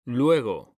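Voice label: "luego", Spanish, male; mekanta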